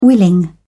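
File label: "willing", British English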